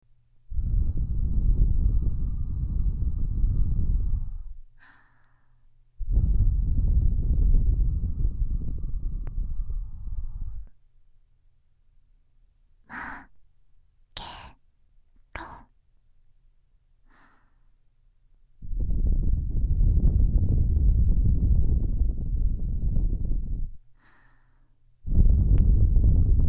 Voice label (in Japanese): おまけのオナサポボイス